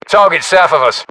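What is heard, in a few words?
threat infantry south, British; localized english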